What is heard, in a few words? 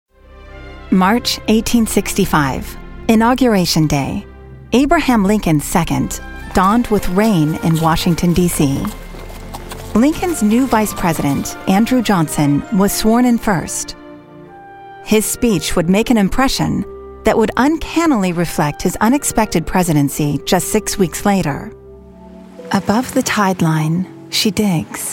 english american documentaries